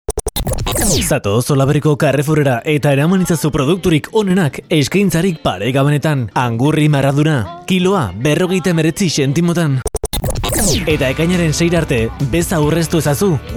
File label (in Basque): todojingles eusk